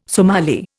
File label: "Somali", Indian